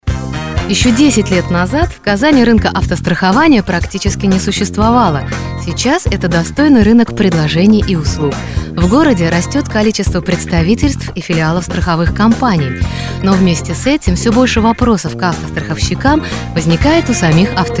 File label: rus